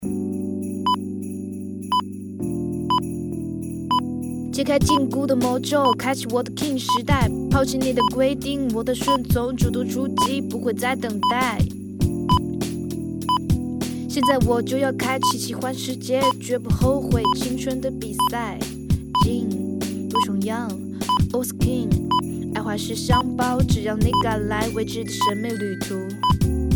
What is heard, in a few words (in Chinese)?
箱包广告